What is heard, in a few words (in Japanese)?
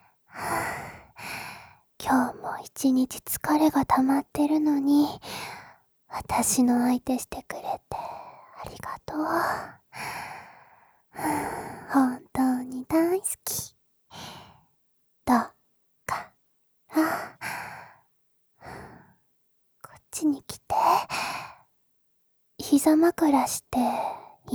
癒しの膝枕 サンプルボイス